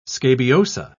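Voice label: "scabiosa", British English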